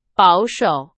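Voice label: Chinese, vocabulary; hsk4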